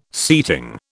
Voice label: "seating", British